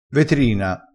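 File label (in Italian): "vetrina", dialettale, crespinese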